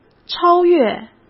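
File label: "超越", Mandarin Chinese; hsk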